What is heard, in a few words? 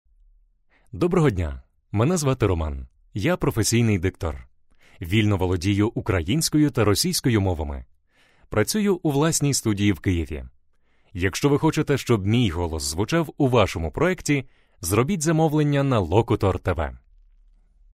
locutor ucrania ukrainian voiceover, Ukrainian male voice talent